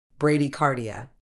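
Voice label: "bradycardia", British English; ˌbræd.iːˈkɑː.diː.ə; brad-i-KAH-dee-uh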